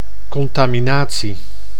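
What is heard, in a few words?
"contaminatie", Dutch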